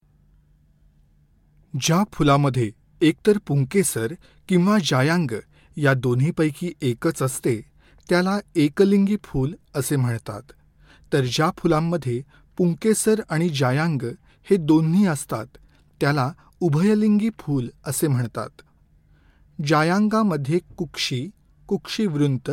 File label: narration marathi